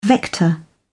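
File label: "vector", British English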